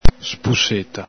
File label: "spuseta", Veneto